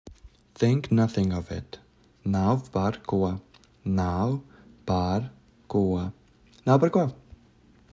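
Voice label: Think nothing of it, Latvian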